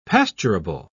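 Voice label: "pasturable", British English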